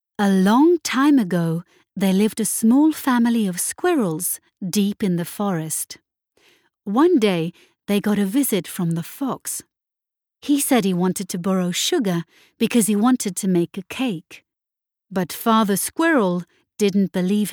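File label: Norwegian childrens book english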